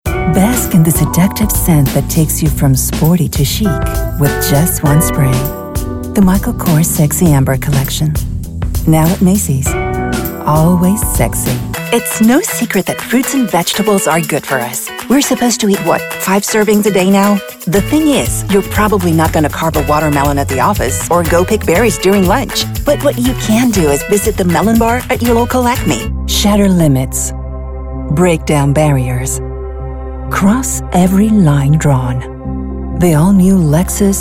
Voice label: English Commercial